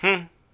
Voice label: guy neutral; Classic Cribbage 1.0

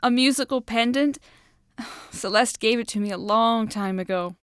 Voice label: pendant Break, english; Keepsake; crescent Coast Waterway

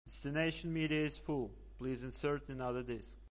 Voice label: Male New Disk, Male Voice; Grand Backup personal

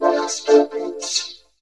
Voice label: vocal fx; Techno; Sound Clips 10,000

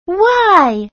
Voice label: "y", letter; star catcher

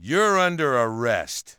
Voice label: under arrest, cop; Urban Chaos

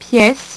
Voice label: "piece", French; HyperSound™2

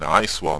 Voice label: chat

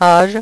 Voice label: "age", French; HyperSound™2

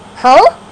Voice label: huh (cartoon); Sound Library 2000; Wayzata Technology

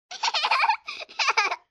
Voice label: kid; WMBonusXP

